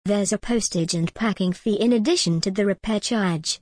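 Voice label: British English, there's a postage and packing fee in addition to the repair charge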